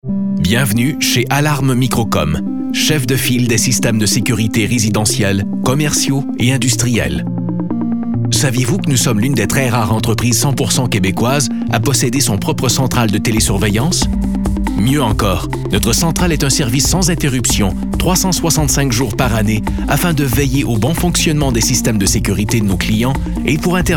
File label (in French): french canadian ivr